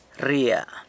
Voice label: "rria", San Lucas Quiaviní; goes out, leaves